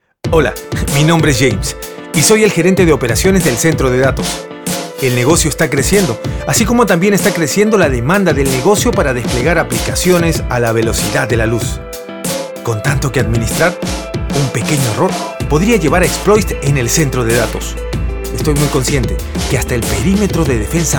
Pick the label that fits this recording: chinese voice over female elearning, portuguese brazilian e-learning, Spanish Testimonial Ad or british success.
Spanish Testimonial Ad